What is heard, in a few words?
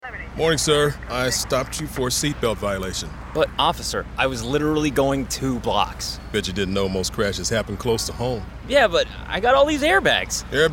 Radio ENG; TxDOT; Excuses